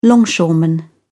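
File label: "longshoreman", British English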